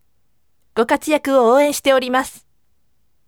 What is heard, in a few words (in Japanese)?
voice "gokatuyaku ouen shitemasu" sikkari